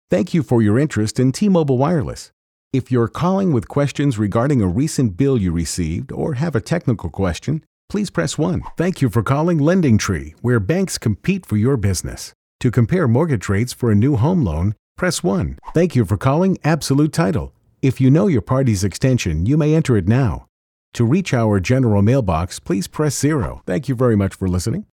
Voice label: IVR